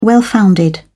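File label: "well-founded", British English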